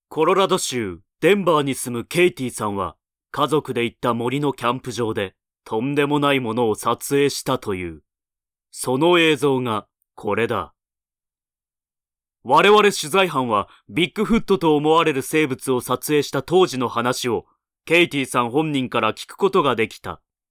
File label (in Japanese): ナレーション